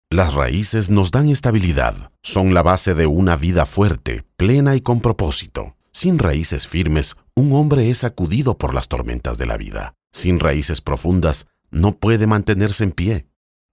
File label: spanish latin american audiobooks